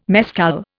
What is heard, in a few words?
"mescal", Indian